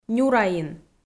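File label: "нюраин", Komi, female; kpv audio dict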